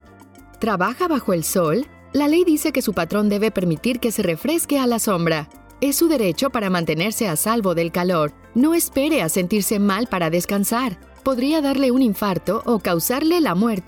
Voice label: Spanish Radio